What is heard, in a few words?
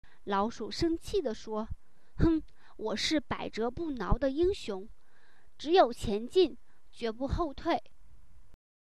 free chinese lesson; kids